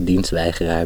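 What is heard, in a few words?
"dienstweigeraar", Dutch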